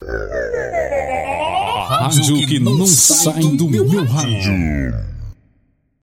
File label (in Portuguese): a rádio que não sai do meu rádio, efeito sem fundo, voz